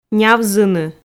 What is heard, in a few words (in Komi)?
"нявзыны", Komi